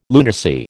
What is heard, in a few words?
"lunacy", American